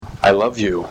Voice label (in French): anglais americain